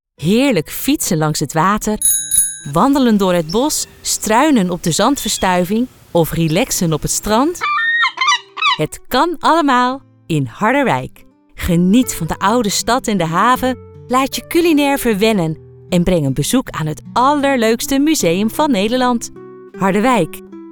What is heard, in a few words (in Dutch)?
Commercial, voice-over